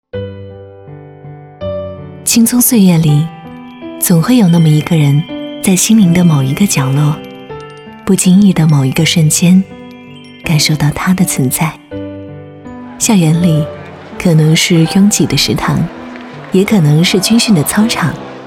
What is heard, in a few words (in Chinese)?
微电影 温柔; 女; 青葱岁月